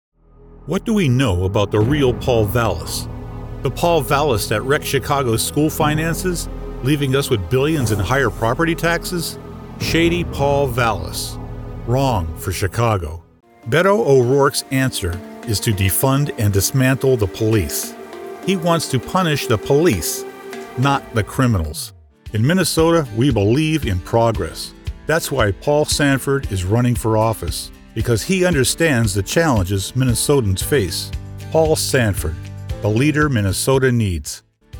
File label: Political Ad